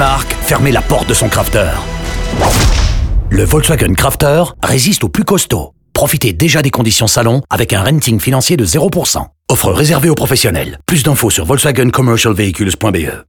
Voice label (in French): radio avion; vw gamme; fr